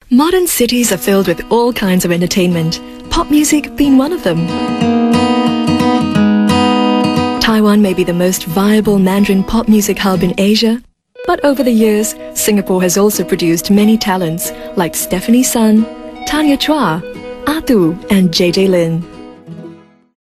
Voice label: TV Narration, English Singaporean Female

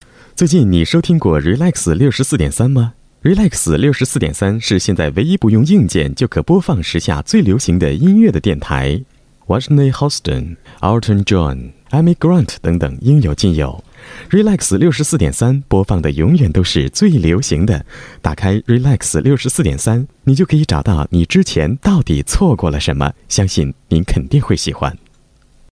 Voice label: Cantonese Relex

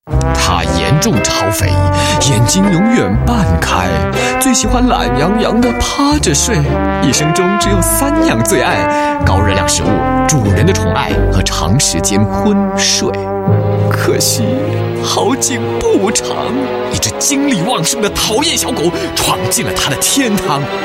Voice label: Mandarin; Golden Village

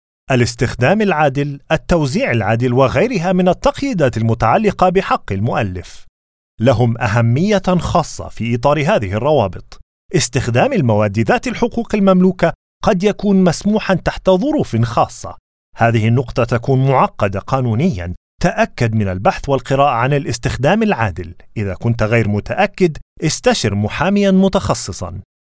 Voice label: Modern Arabic

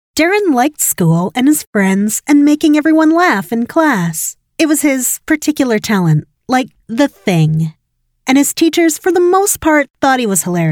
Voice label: US English Audiobook, English US Female

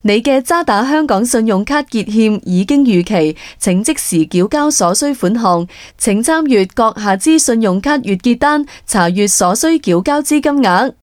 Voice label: Cantonese IVR, Cantonese Female

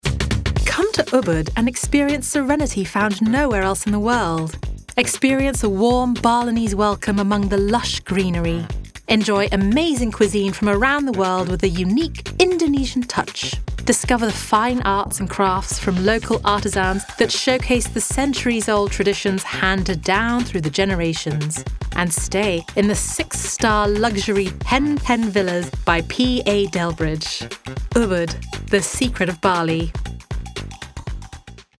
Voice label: UK English Holiday Commercial

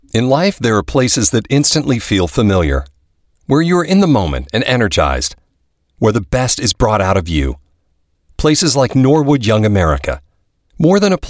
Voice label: US English; Norwood

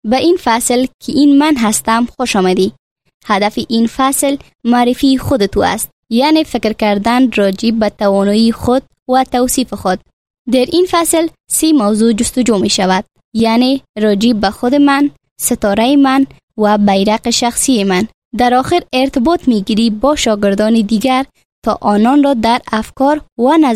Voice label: Dari Female

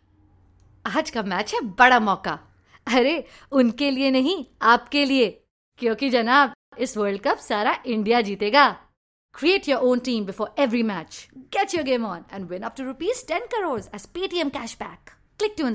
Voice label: Indian English, Female; PayTM

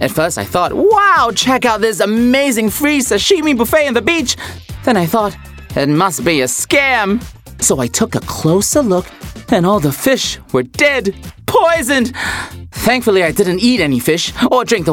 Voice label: Asian English Character Voices Monkey

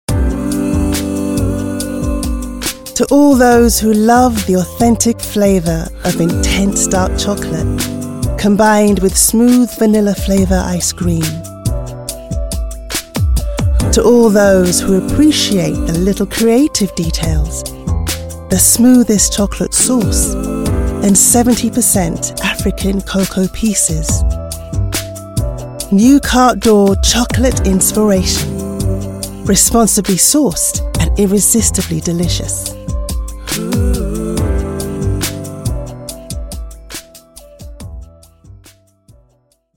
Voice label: UK English; CarteDOrChocolate

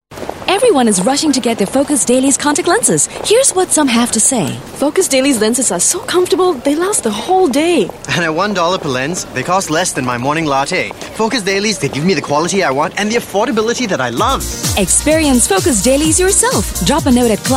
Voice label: English Singaporean Female; CibaVision

